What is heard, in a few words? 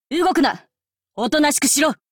warcry